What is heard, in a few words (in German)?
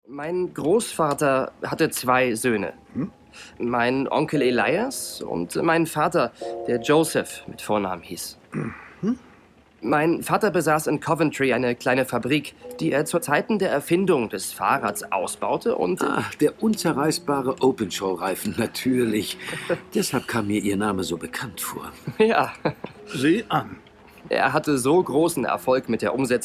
hoerspiel; sherlock holmes